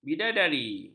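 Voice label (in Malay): "bidadari", Malay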